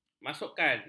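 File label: "masukkan", Malay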